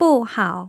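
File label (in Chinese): "bù hǎo", Mandarin; tone changes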